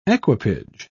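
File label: "equipage", British English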